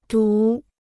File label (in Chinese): "读", Chinese